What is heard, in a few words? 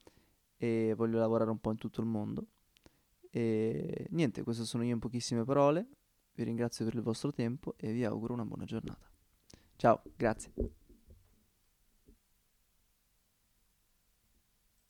ITALIAN